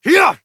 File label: Horse Sprint Adult; Duviri